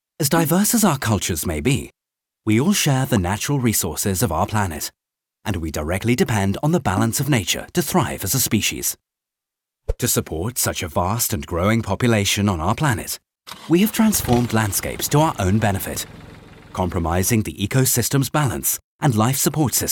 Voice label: livestock explainer video